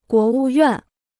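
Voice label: "国务院", Chinese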